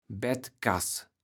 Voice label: "Bettkas", platt

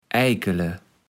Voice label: "Eikele", platt

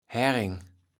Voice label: "Härreng", Platt

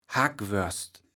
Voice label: "Hackwörss", Platt

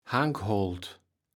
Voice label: "Hankholt", Platt

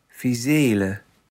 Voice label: "Fiseele", platt

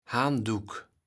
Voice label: "Haandduck", Platt

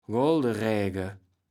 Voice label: "Golderäge", Platt

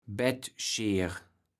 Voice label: "Bettscheer", platt

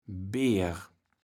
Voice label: "Beer", platt

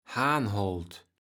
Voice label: "Haanholt", Platt

